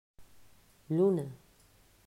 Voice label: "Luna", pronunciacion; mausschool

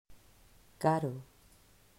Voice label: "Caro", pronunciacion; mausschool

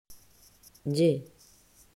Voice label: pronunciacion fonema ll; mausschool

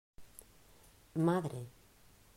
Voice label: "Madre", pronunciacion; mausschool

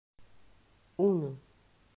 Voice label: "Uno", pronunciacion; mausschool

